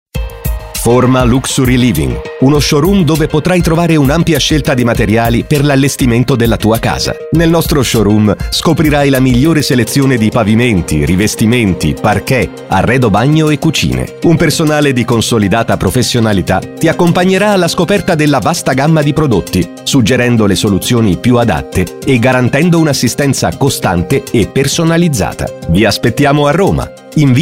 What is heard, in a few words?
commercial, italian, male